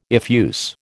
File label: "effuse", American